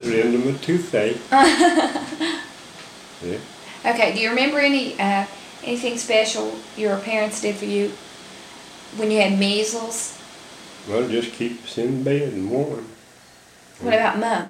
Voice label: Conversation with 60 year old white male West Virginia, american english dialect